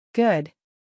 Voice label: "good", English